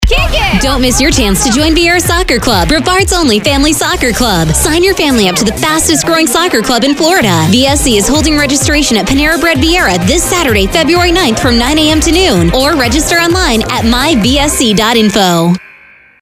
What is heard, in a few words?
spring commercial